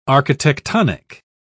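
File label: "architectonic", English, British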